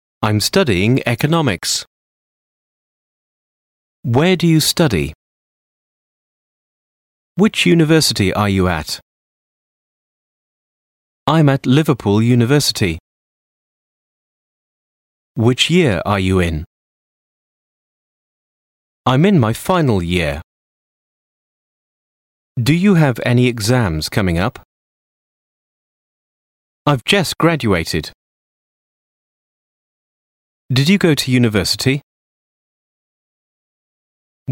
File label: English Sentences